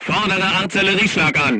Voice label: voice message art command, crew tanks german

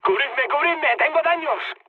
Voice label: aircraft damaged, mood med, speech; War Thunder

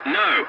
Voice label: voice message no, english; War Thunder

